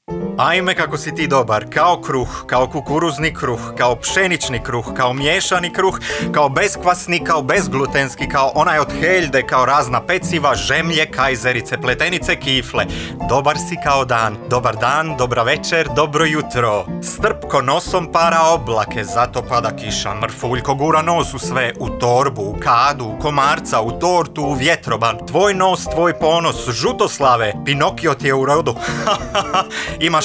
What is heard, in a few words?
mix, croatian